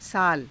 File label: "saala", hindi